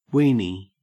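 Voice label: "weenie" English, Australian; pronunciations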